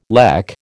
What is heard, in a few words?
"lech", American